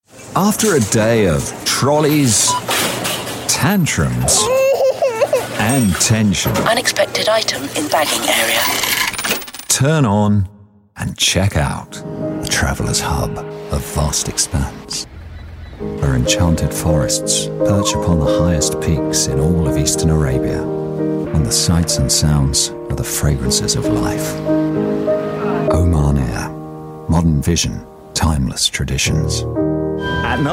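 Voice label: commercials, male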